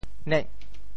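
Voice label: "nek8", Teochew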